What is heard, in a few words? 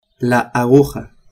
"aguja", spanish